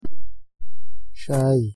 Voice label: "sháayìi", Hausa; thee-in-andere-talen

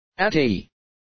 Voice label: "atayi", Bambara; thee-in-andere-talen

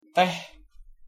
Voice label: "teh", Javaans; thee-in-andere-talen

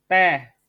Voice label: "téh" Balinees; thee-in-andere-talen

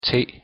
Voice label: "te", Deens; thee-in-andere-talen

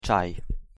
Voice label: "чай", Oekraïens; thee-in-andere-talen

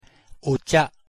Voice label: "おちゃ", Japans; thee-in-andere-talen

